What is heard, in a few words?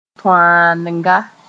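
"tõaalenga", Mooré; torn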